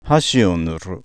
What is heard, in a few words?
paint chopsticks, Japanese pitch accent